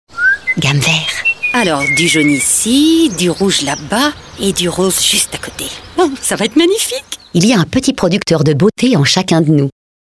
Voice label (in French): pub comedie; gamme vert